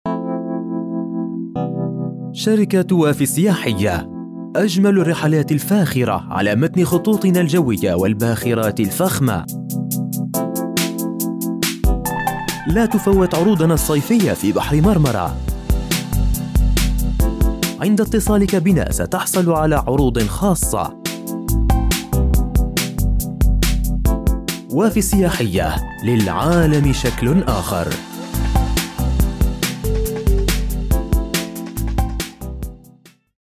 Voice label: advert smooth